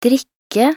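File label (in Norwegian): "drikke", Norwegian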